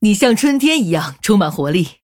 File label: Female Human Well Played, zh